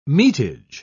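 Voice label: "metage", English, British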